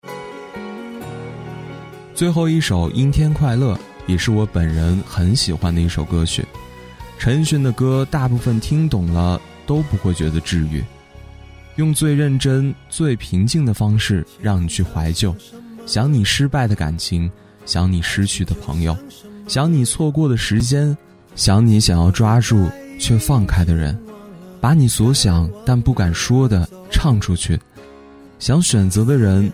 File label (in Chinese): 情感样音